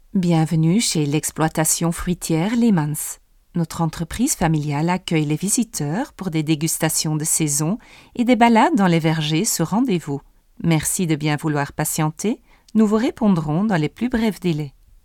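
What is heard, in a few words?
Voice Fruitteelt, voice-over, French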